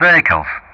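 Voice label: "vehicles", English; Ka-50; Player Target; DCS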